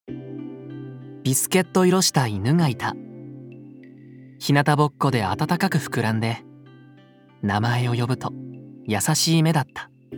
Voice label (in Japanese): ナレーション